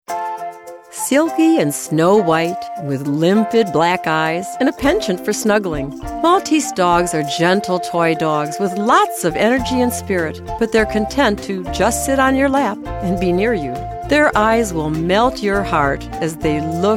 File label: narrative